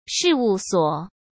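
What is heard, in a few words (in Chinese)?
"事务所", Mandarin Chinese; hsk; chinese-flashcards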